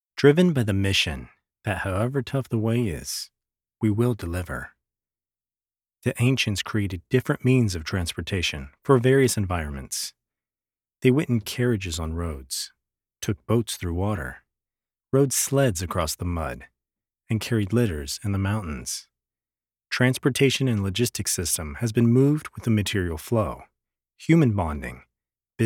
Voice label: 讲故事类型

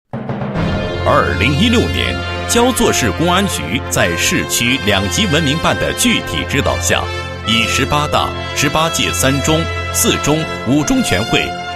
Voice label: 男 汇报专题 创建省级文明单位汇报材料

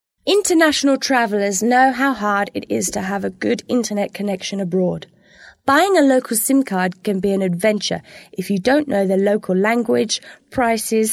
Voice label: 英式女声; 外语

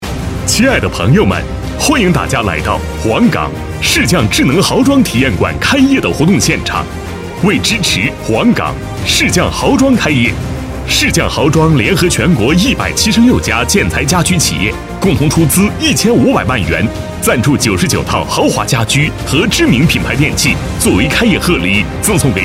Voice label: 男, 活动宣传, 大气浑厚

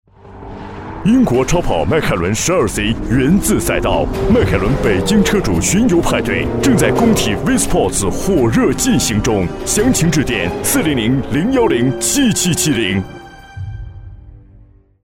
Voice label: 男, 广告, 电台广告; 迈凯伦

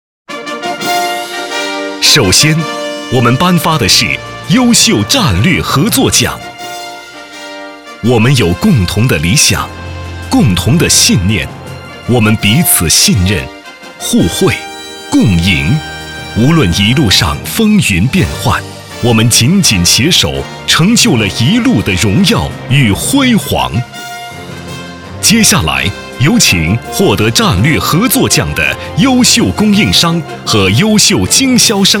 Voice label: 男, 颁奖, 典礼, 激情大气

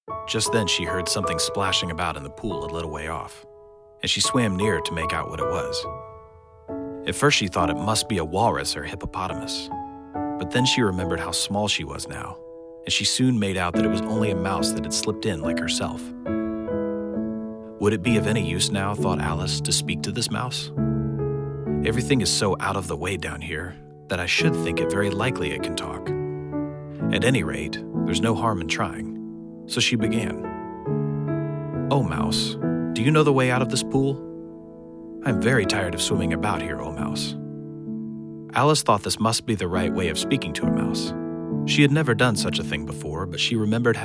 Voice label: 外籍英男, 宣传片; 爱丽丝梦游仙境宣传片

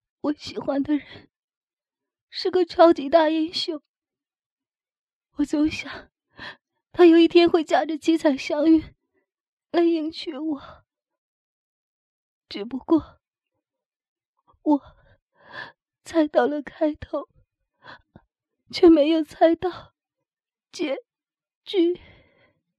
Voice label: 女 人物模仿; 紫霞仙子

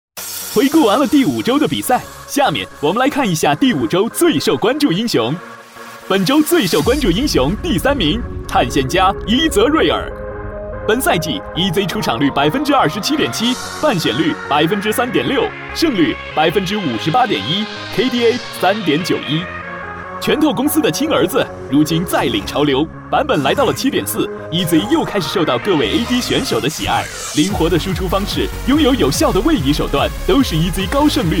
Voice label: 男 游戏解说 赛事解说, 国语男声配音; 英雄联盟

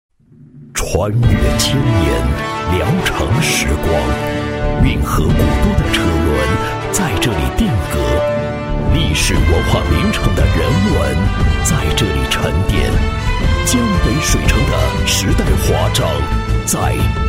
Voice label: 男 专题 大气有感情乡镇建设, 国语男声配音

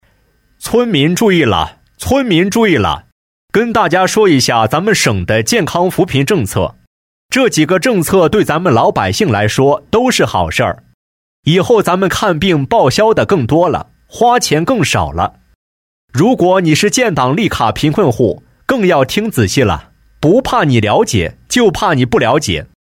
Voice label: 男, 广播播报, 大喇叭; 扶贫介绍

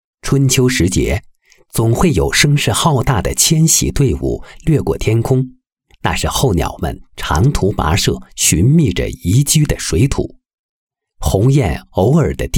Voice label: 男 火焰醉鹅

